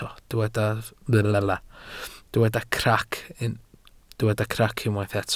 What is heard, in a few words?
"crac", welsh